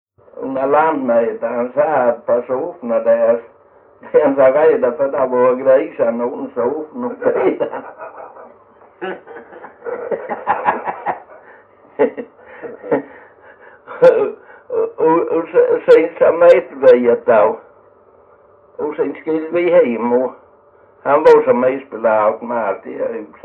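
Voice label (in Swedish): Jämshög Blekinge, Svenska sagor dialekter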